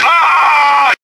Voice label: hurt heavy; cloaker